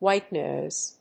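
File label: "white noise", English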